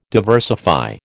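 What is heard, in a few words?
"diversify", American English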